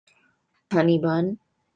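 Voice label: "honeybun", English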